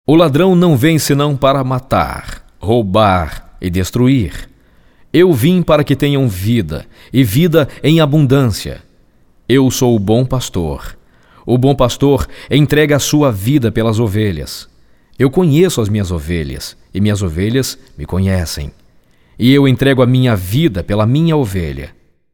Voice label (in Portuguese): Narração